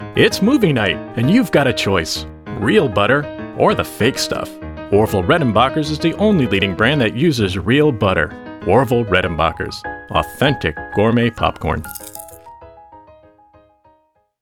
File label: Commercial whimsical energetic fun